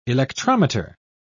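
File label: "electrometer", British English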